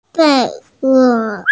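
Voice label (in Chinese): "fei2wo4", Chinese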